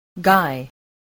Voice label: "guy", spelling

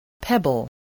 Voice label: "pebble", English, voice

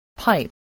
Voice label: "pipe", English

